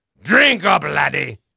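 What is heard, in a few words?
English male; Pirates Of The Caribbean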